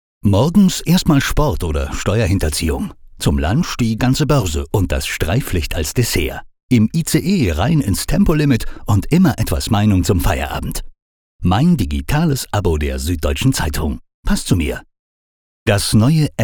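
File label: German, male, commercial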